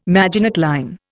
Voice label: "Maginot line", Indian